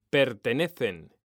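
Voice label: "pertenecen", Spanish, male; mekanta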